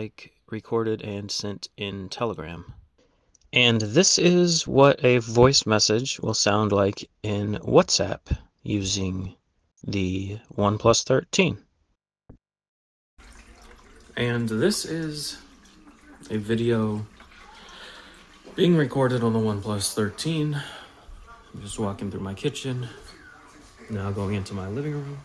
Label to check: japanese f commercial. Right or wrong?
Wrong